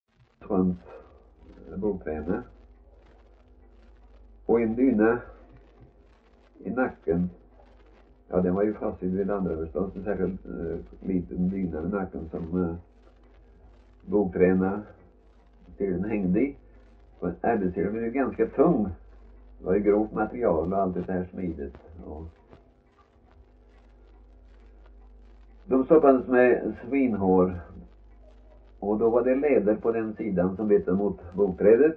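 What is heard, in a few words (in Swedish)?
Värö Halland, dialekter svenska sagor; Folkminnen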